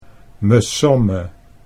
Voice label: "mesomme", Dutch